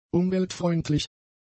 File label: "umweltfreundlich", German; adjectives